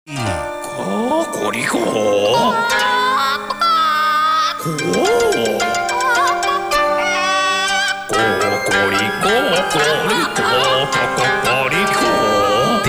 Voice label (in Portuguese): Anuncio da Galinha cocorico; radio